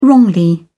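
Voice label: "wrongly", English, British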